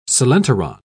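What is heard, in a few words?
"coelenteron", English, British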